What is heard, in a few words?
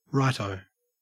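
"righto", Australian English; pronunciations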